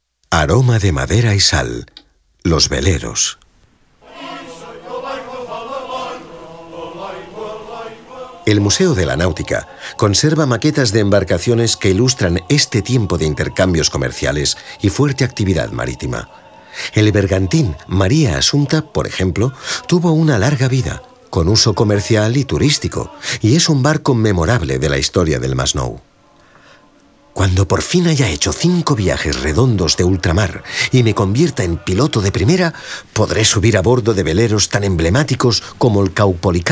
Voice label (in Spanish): audioguia esp